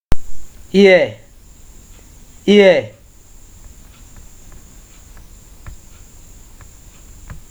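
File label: "hear", Nigerian Pidgin, male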